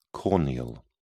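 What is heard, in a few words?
"corneal", British English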